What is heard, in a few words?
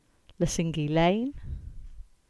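"Lesingey Lane" English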